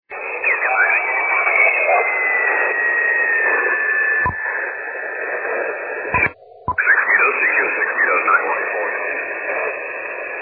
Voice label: 6m ssb